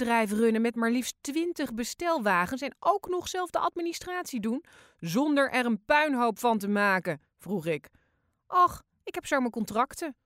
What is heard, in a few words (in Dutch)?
Voice Over, stemactrice